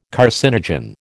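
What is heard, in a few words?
"carcinogen", American English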